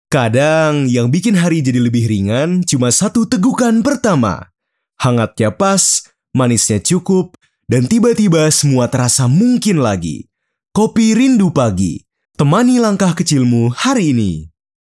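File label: casual Indonesian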